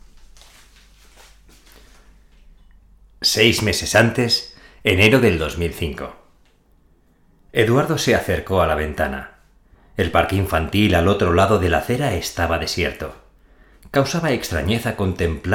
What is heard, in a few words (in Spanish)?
Hombre Narrativo